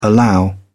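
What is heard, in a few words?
"allow", British English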